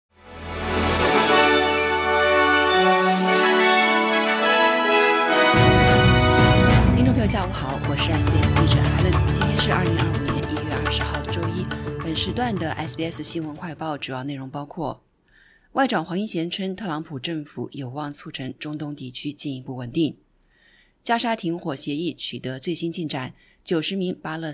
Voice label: mandarin newsflash; 澳外长称特朗普政府有望促成中东地区进一步稳定; SBS Chinese; SBS新闻快报

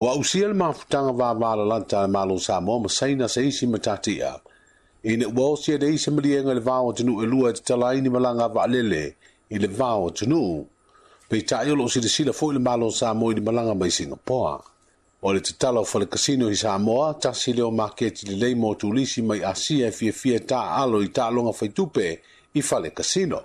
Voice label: samoan; Malaga vaalele i le va o Samoa ma Saina; SBS Samoan

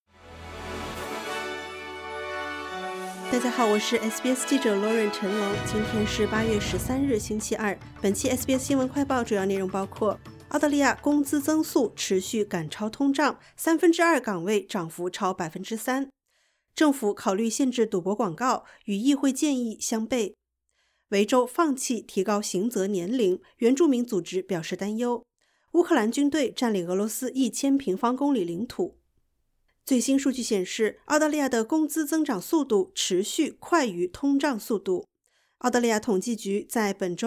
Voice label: mandarin news flash; 新数据：澳大利亚工资增速持续赶超通胀; SBS Chinese; SBS新闻快报

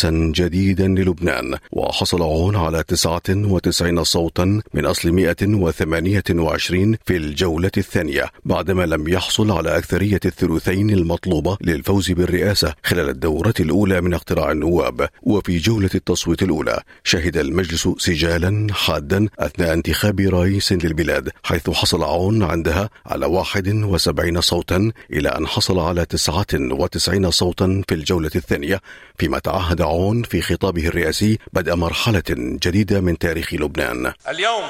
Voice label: arabic, نشرة أخبار الصباح

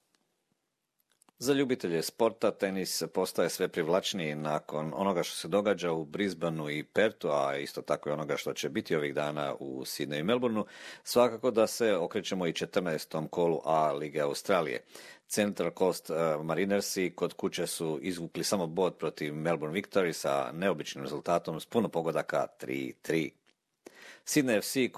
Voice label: croatian; A-League report Brisbane Roar go top

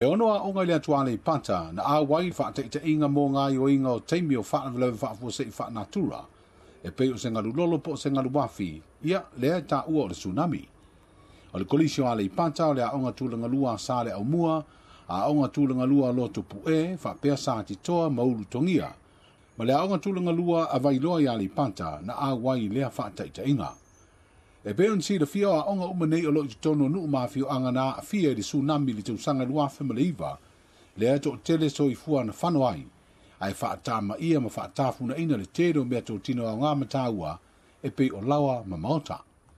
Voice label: samoan; SBS Samoan; Faia i a'oga i tua faata'ita'iga o gaioiga i taimi o Sunami.

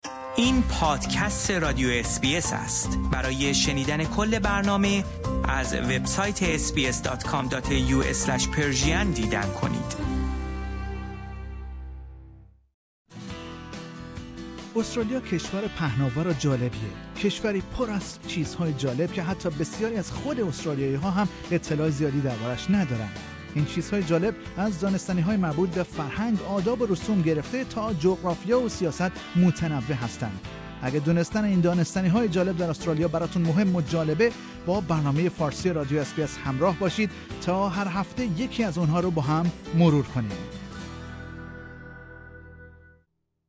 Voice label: persian

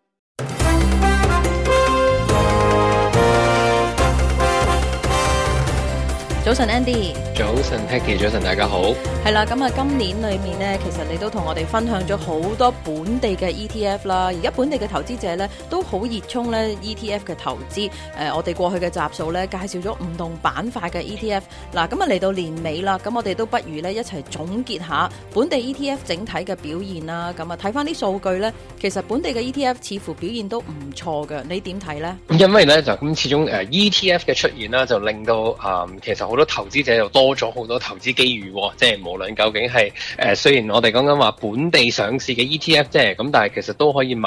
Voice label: cantonese; 【ETF 年度總結】科技退居中游 新興市場跑出 市場轉向訊號浮現？; SBS Chinese; global finance

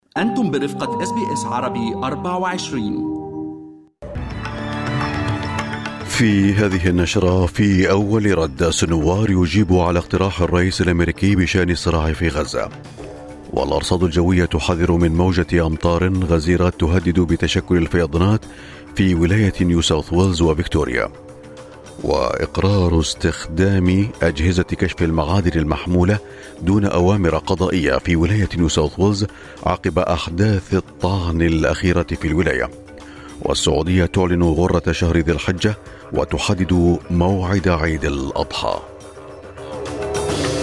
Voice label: arabic, newsflash; morning news

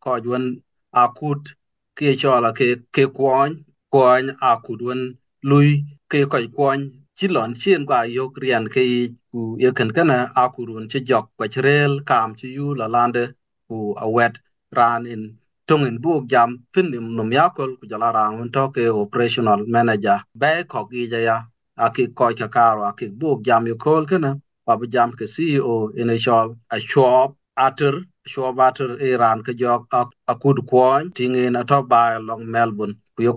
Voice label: Dinka; Kuɔ̈ny bi kɔc wiëc luɔ̈i ku piööc keek ee ciɛ̈ɛ̈ŋ; SBS Dinka

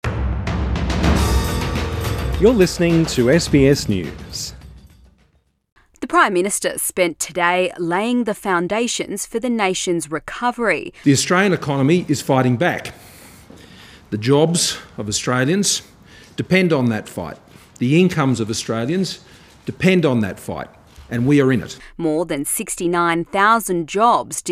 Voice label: english; SBS News; Federal government unveils JobTrainer scheme

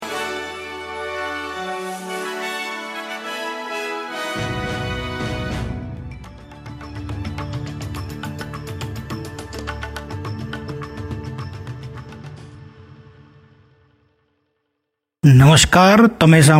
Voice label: gujarati newsflash; SBS Gujarati Australian update: 4 September 2025

